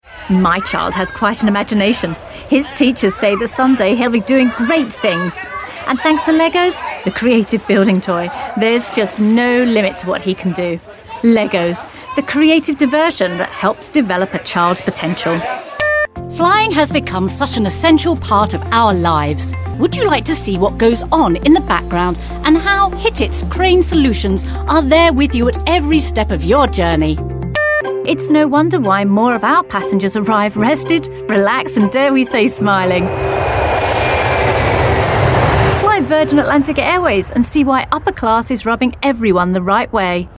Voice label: english british voice over female commercial